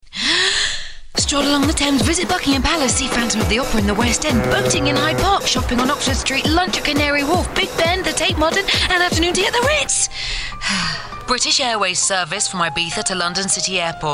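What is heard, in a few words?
English UK, Werbung, Aufgedreht; Fremdtake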